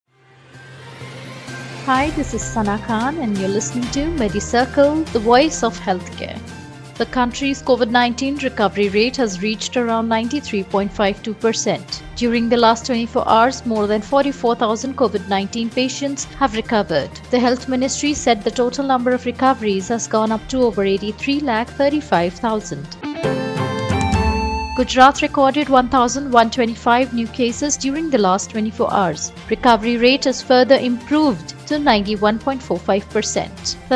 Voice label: english news